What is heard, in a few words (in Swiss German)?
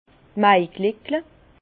"maigleckle", Bas Rhin; vin; muguet